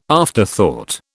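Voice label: "afterthought", British English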